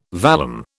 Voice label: "vallum", British